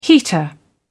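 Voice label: "heater", British English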